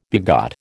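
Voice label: "begat", American English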